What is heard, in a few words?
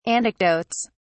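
"anecdotes", British English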